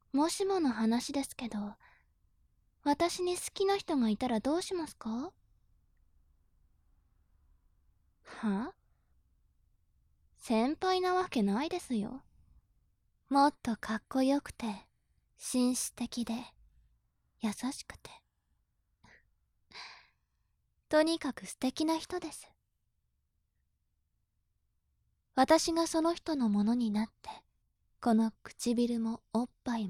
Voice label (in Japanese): 調教中音声, ASMR